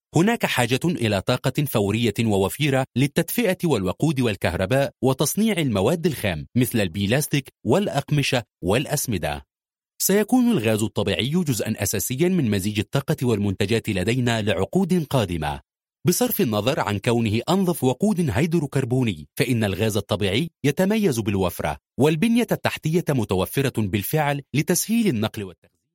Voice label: Arabic (Fosha-formal); msa